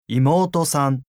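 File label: "imoutosan", Japanese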